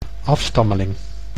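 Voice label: "afstammeling", Dutch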